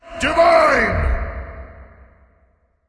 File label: divine, Announcer Killstreak; Khepri